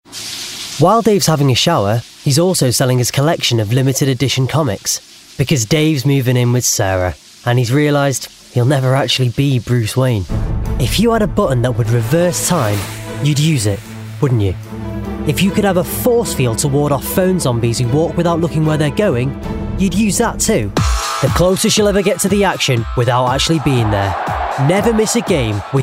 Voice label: Commercial Voicereel

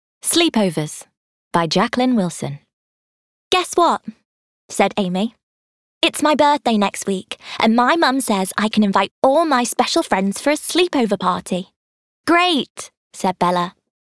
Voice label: Audiobook; Sleepovers by Jacqueline Wilson

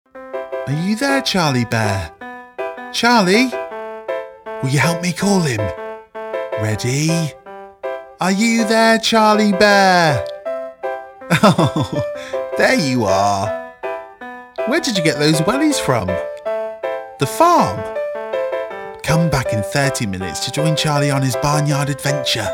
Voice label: Commercial Reel

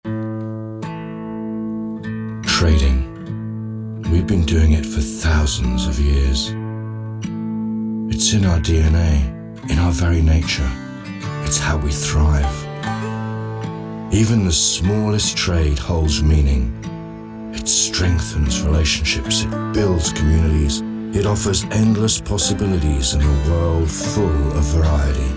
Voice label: commercial

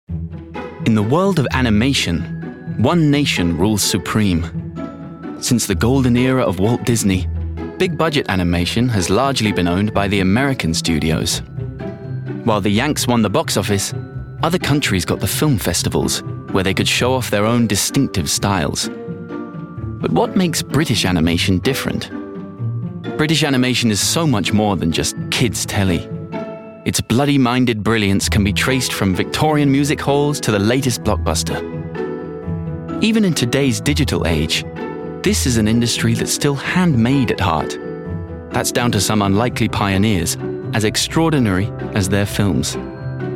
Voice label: doco

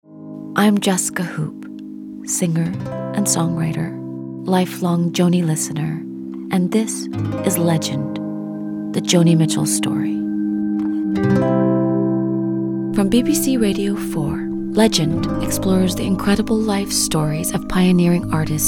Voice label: Narration

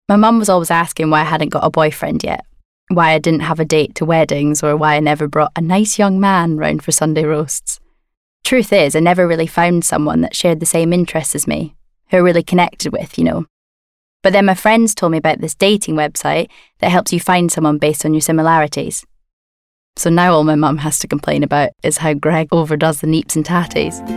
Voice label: Scottish

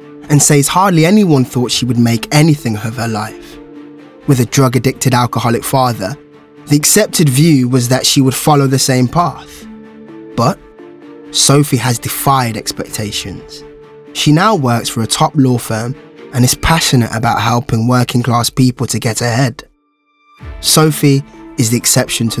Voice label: Narration Social Mobility Reel

